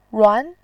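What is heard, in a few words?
"ruan", Mandarin Chinese, second tone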